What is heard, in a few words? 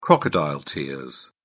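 "crocodile tears", British English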